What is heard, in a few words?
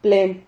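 "plen", Spanish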